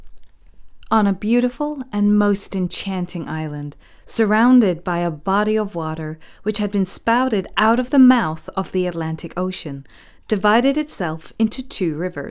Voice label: american accent, English neutral, Presenter, Clear, Direct, Experienced